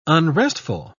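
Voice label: "unrestful", English, British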